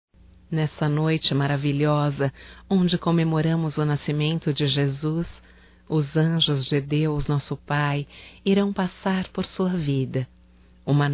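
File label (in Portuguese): NATAL NEUTRO, feminino; Telemensagens 2017; Natal & Ano Novo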